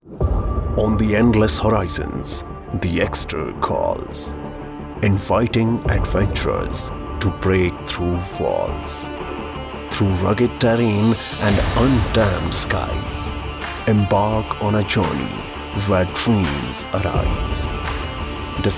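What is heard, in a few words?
english inspiring advert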